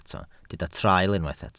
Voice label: "traul", Welsh